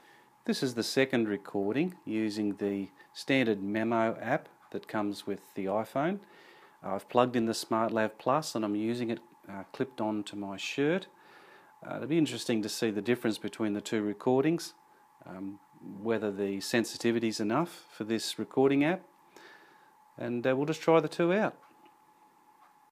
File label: Memo App With Smartlav plus Mic